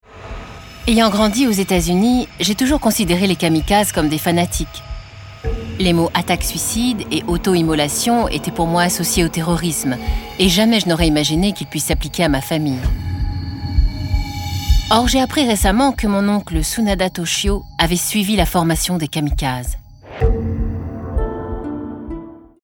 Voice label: Voice over Documentaire